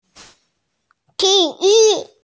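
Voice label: "ti3yu4", Mandarin Chinese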